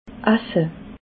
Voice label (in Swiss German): "asse", alsace